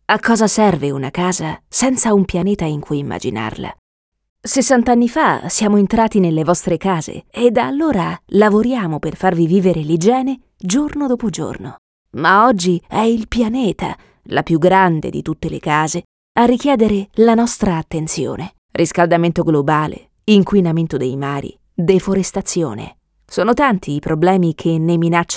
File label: female, Italian promo